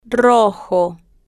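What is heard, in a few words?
"rojo" Spanish, female, slow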